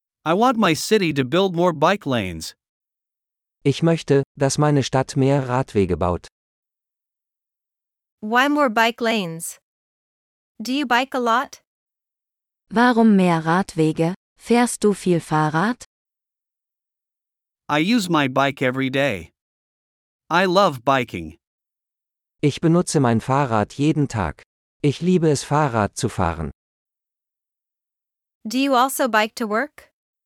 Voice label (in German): Dialog mit Uebersetzungen; Fahrrad fahren